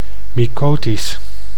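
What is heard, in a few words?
"mycotisch", Dutch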